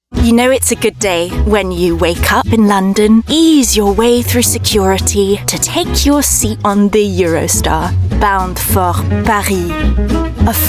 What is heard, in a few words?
commercial, english female voices scottish accent